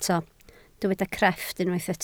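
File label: "crefft" Welsh, spoken word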